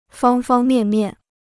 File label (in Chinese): "方方面面", Chinese